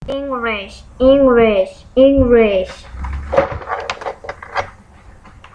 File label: English